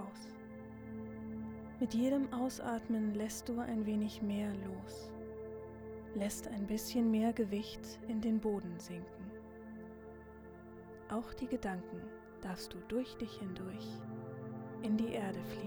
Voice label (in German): Wald Atem Achtsamkeit mit Atmosphere